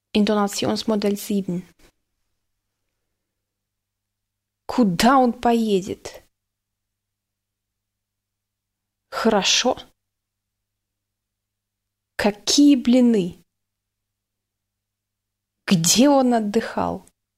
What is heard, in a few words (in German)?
russisch intonation; buske verlag